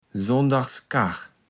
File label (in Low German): "Sonndags Karr", platt